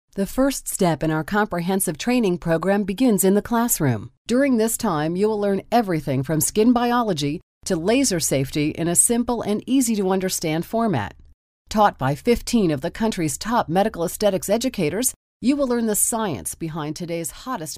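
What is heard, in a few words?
American English, female, e-learning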